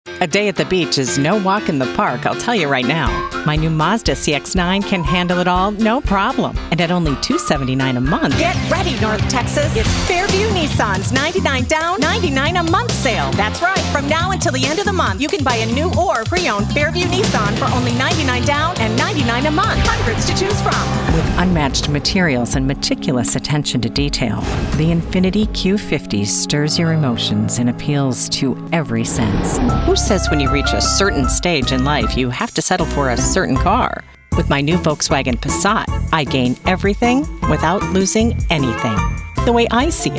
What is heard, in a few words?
Automotive Commercial